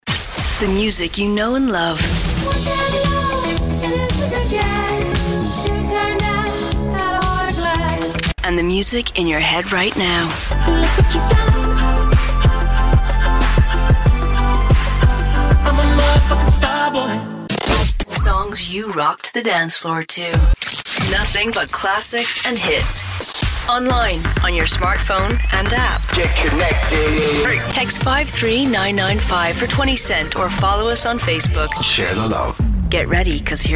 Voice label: Imaging